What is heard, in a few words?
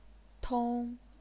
"tong1", chinese pinyin